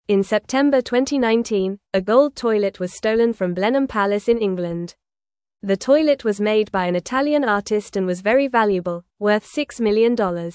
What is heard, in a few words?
English Lower Intermediate FAST Reading; English Newsroom; Thieves Steal Fancy Gold Toilet in Just Five Minutes